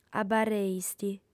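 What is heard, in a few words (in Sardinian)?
"abarreist", lingua sarda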